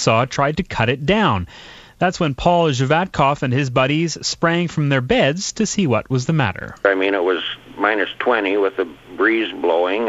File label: christmas tree news spot; dawson creek; 2010